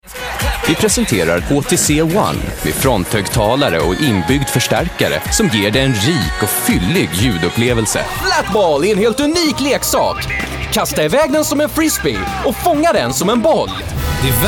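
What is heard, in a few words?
Swedish general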